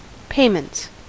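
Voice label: "payments" English, US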